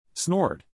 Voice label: "snort", English, neural; animal sounds in english; Horses; Stephen